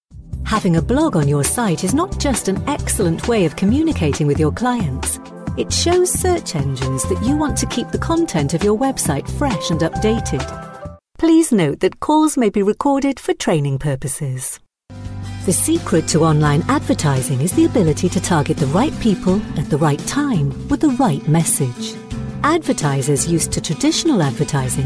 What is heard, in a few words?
english british corporate videos